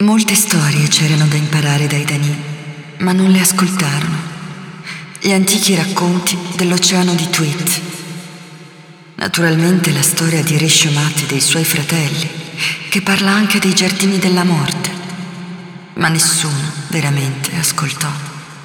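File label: Yeesha Garden Speech, Italian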